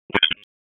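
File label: Police Northwest District Tactical, P25; wake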